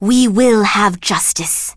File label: Blood Elf Female Standard Farewell